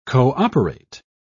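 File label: "co-operate", English, British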